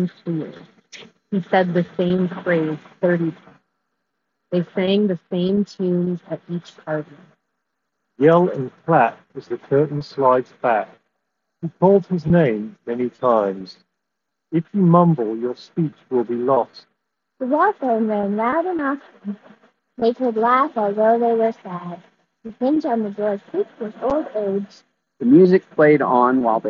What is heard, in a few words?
Status Between 3 ANC Street microphone sample